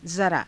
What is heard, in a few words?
"zaraa", hindi